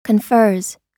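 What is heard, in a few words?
"confers", US English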